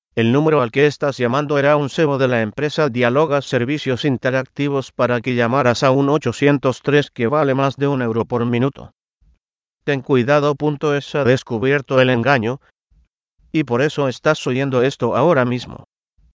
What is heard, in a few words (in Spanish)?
locución dialoga